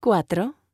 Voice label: Spanish Number